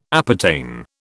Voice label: "appertain", British